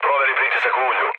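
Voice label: Serbian damaged oil mood low, speech; War Thunder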